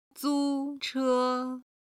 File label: "zu1che1", Chinese